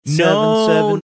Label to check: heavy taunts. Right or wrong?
Wrong